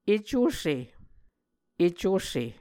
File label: "ecuse", muscogee